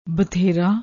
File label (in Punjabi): "batherra", Punjabi